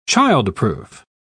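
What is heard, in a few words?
"child-proof", British English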